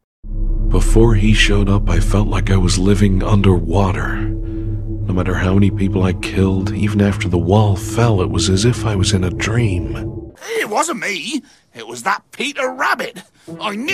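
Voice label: animation male, Voice Over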